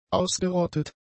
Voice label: "ausgerottet", German; adjectives